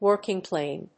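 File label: "working plane", English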